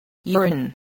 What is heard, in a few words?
"urine", English